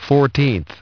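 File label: "fourteenth", English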